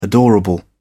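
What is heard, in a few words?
"adorable", British English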